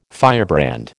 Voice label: "firebrand", American